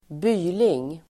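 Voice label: "byling", Swedish; Uttal: [²b'y:ling]; cop, copper; polis (policeman)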